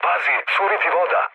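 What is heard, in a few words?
Serbian damaged water mood low, speech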